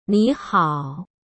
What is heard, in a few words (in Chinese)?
"你好", 中国語; 台湾では夜でも「你好」です; ニーハオ; こんにちは